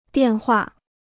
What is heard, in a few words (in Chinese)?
"电话", Chinese